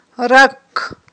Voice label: "ракк", Aghul